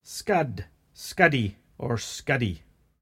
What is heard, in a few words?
"scud, skuddy or skuddie", Cromarty; [scud, scud-dee or scudee]; trip in a boat for pleasure, a; Word